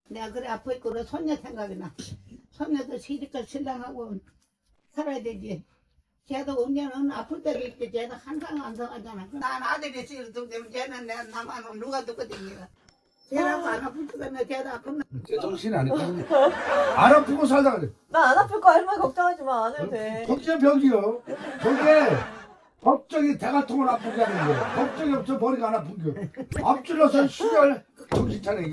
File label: vocals; 할머니vs삼촌